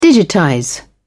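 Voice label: "digitize", en, British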